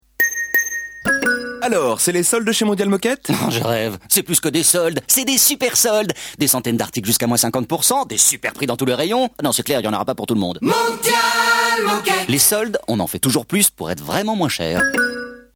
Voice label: ironique joué; voix off; Mondial Moquette

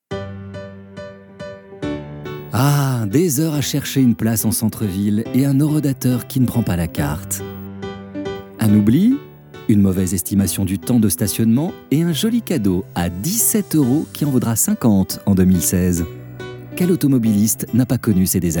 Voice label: City Park instit, Récit

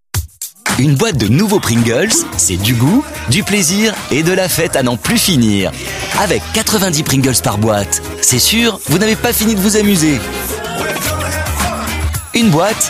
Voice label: jeune enjoué; voix off; Pringles

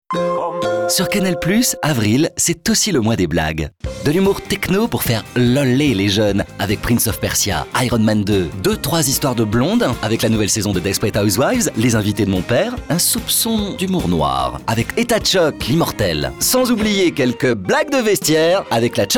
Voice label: Pub, Tonique joué; canal

